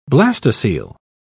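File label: "blastocoel", British English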